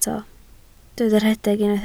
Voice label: "rhedeg", Welsh

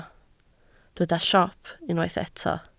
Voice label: "siop", Welsh

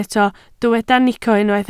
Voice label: "nico", Welsh

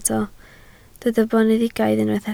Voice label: "boneddigaidd", Welsh

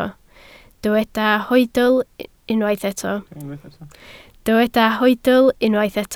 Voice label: "hoedl", Welsh

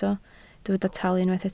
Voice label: "talu", Welsh

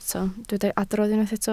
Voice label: "adrodd", Welsh

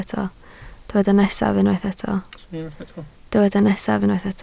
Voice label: "nesaf", Welsh

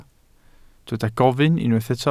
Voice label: "gofyn", Welsh

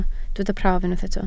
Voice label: "prawf", welsh

